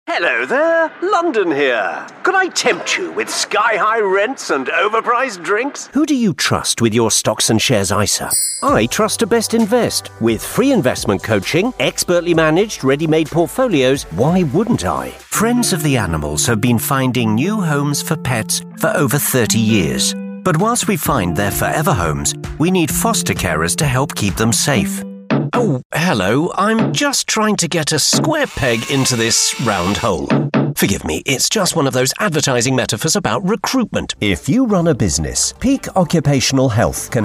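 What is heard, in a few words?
commercial, Charming Warm Male Voice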